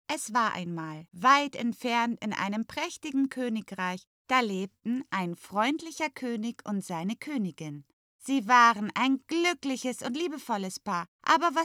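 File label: german female narration; voice demos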